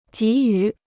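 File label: "给予", Chinese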